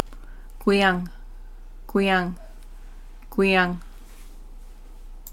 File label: "gywiyang" fire, Dharug; Dharug Vocabulary - Guwiyang